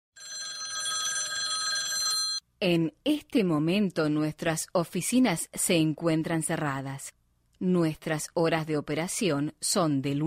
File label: Menssage on hold telefonia; On Hold Voicemail